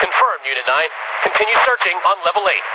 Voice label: Dispatch; Mirror's Edge (2008); Unused Assets; The Boat